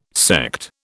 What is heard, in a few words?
"sect", British